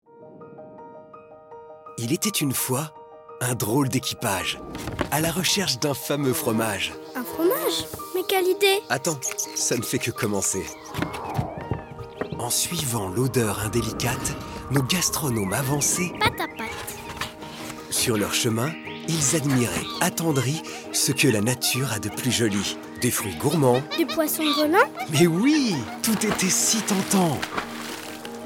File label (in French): NARRATION CONTE; ZOUZOUS; FRANCE 5